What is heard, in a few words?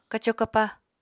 "kaco kapaá", Apatani